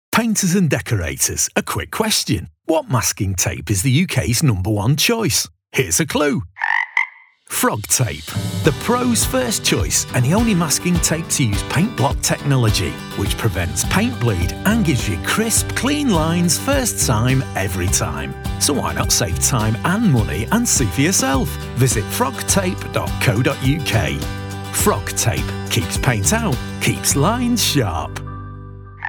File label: Radio advert; Frogtape